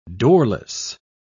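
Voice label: "doorless", British English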